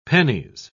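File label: "pennies", English, British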